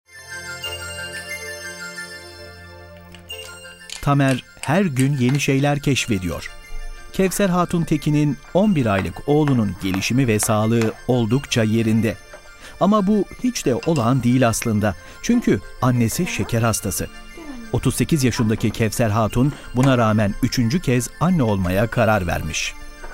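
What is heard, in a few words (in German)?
Aufklärungsfilm, türkisch; nrw gesundheitsmin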